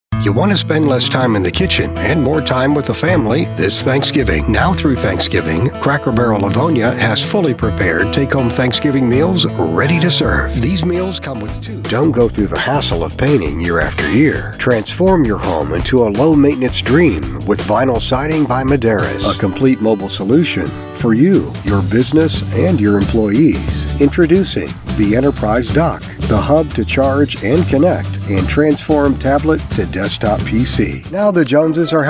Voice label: Commercials Corporate Audio eLearning Audiobooks and On-hold messag